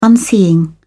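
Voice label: "unseeing", British English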